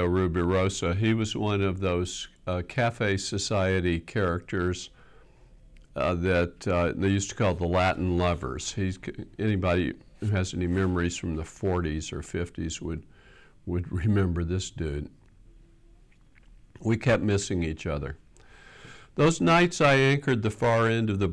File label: poem